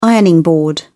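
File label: "ironing board", British English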